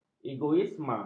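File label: "egoisme", Malay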